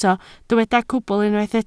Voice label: "cwbl", Welsh